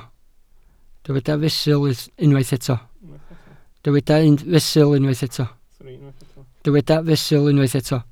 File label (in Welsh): "fesul", Welsh